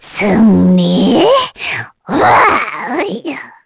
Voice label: Victory, kr, voices; heroes; kingsraid